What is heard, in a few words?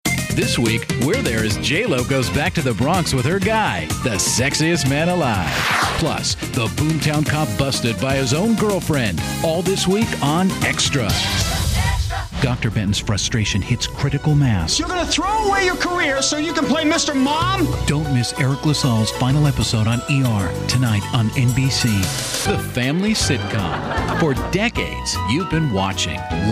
promos